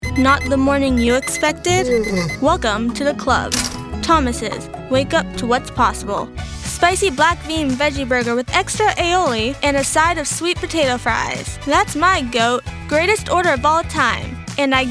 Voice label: commercial